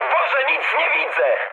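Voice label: searchlite, mood high, Polish speech; War Thunder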